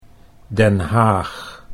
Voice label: "Den Haag", Dutch

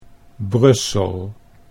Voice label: "Brussel", Dutch

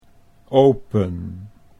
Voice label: "open", Dutch

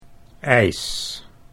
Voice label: "ijs eis", Dutch

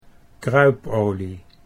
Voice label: "kruipolie", Dutch

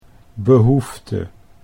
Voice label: "behoefte", Dutch

